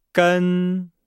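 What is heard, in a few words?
"gen", Chinese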